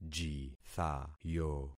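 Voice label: "jithayo", concatenated syllables; Affixing experiment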